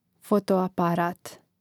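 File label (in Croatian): "fotoaparat", Hrvatski; mreznik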